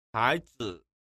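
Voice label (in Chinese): hai zi, Chinese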